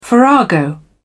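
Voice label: "farrago", British English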